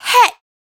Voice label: "Hey" Shout, adlib, Dry Vocals; Raw Vocals; Adlibs

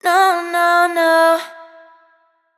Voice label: adlib No No No wet, Raw Vocals; Adlibs

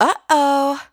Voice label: "Uh Oh", adlib, Dry Vocals; Raw Vocals; Adlibs

